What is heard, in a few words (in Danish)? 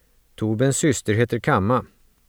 svensk, Torbens syster heter Kamma.